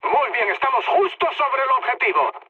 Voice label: near waypoint, mood high, speech; War Thunder

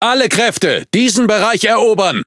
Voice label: Speech Quick Commands Enemy Point, DE; World Of Warships

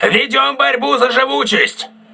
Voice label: voice message repairing, crew ships russian

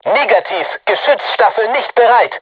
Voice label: voice message art reloading, crew tanks german

